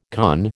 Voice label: "conn", American

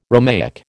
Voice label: "Romaic", American

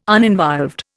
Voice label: "uninvolved", Indian

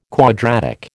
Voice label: "quadratic", American

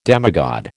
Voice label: "demigod", American

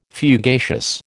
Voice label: "fugacious", American

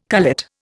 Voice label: "cullet", Indian

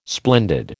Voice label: "splendid", American

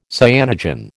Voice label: "cyanogen", American

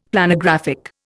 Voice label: "Planographic", Indian; Dictionary

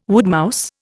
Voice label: "woodmouse", Indian

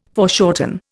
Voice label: "foreshorten", Indian

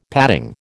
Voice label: "padding", American

